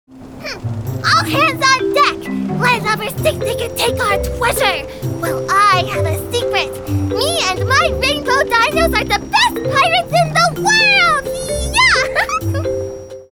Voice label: Female Child Pirate, Character, Cartoon, Spunky Female Child